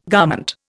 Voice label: "garment", Indian